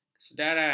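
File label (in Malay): "kesedaran", Malay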